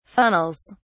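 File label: "funnels", British English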